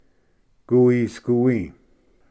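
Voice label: "guwisguwi", Cherokee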